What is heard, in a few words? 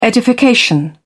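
"edification", British English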